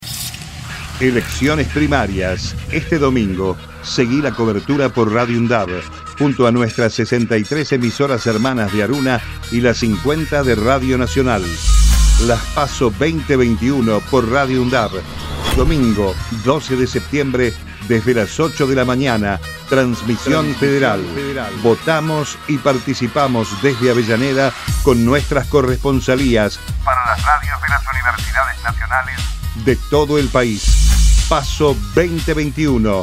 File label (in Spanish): PROMO